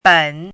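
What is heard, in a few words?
"ben", Mandarin Chinese, third tone; pinyin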